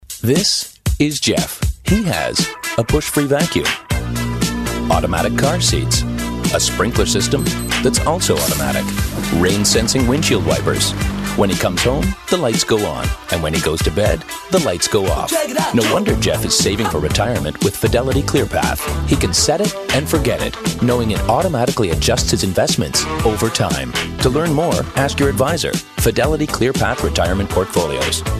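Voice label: Canadian English, male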